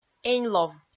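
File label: "эньлов", Komi, female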